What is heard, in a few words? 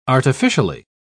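"artificially", English, British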